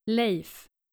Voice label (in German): "leif", skandinavische jungennamen